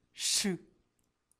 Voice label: "ssh", plosives; sh /ʂ